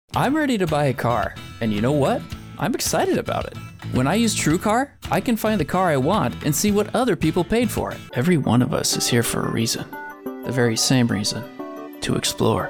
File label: Commercial